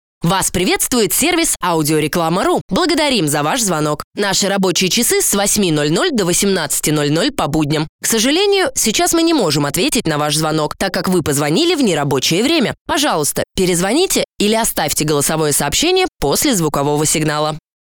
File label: IVR